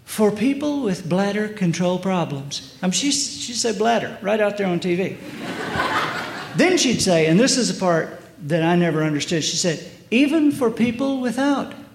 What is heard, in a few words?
worst commercial